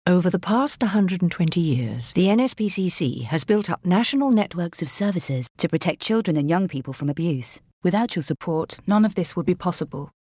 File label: Female; NSPCC